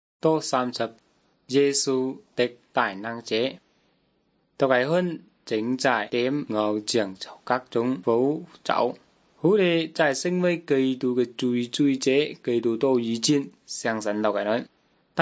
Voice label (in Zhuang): good news zhuang nanning fushu